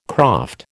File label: "croft", American